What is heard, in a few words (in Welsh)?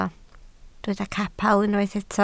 "capel" Welsh